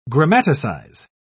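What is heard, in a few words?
"grammaticize", English, British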